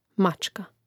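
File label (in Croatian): "mačka", Croatian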